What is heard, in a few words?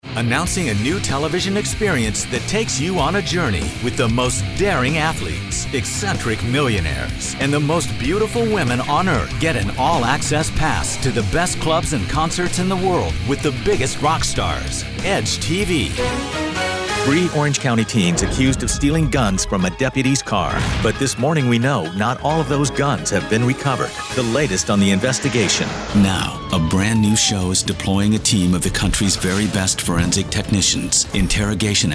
Promo, voice